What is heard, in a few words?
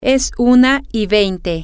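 its 120, Spanish, female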